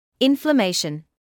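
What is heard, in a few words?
"inflammation", British English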